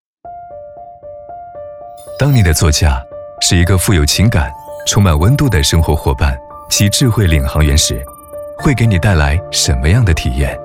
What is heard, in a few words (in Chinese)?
高端男温暖时尚; 一汽大众